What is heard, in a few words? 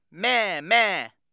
japanese goat